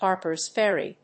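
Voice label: "Harpers Ferry", English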